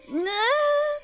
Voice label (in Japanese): ヘタレボイス; Flashpoint Ultimate 13; TheHouse